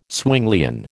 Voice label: "Zwinglian", American